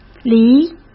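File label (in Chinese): "离", Mandarin; chinese-flashcards; hsk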